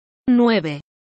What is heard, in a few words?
"Nueve", spanish